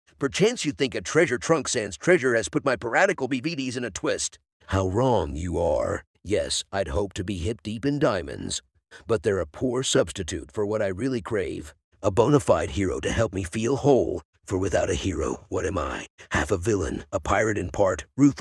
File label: monologue